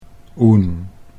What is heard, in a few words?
"oen", Dutch